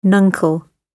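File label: "nuncle", British; gb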